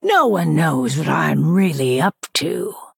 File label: Female Night Elf Picked